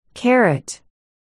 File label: "carrot", articulation